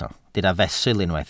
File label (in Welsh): "fesul", Welsh